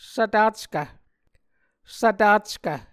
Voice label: "svtackv", Muscogee